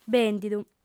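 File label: "bèndidu", lingua sarda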